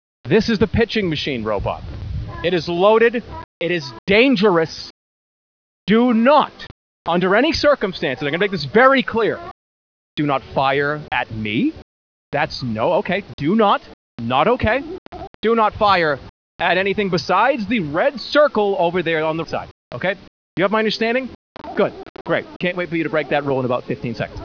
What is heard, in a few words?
robot; quakemapping